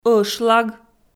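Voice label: "ӧшлаг", Komi, female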